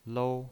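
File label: "lou", pinyin, Mandarin Chinese, first tone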